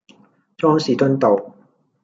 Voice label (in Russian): Голоса, Гонконгский